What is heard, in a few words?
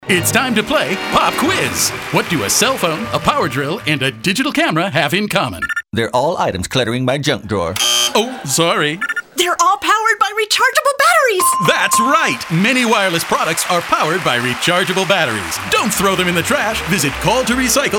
Radio; Call2Recycle; Atlanta